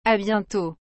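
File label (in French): "A bientot", French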